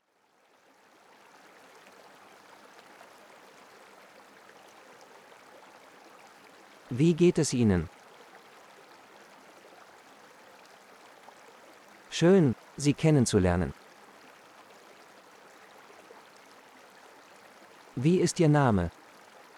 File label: Relax Water Phrases, de-en; The 100 most important phrases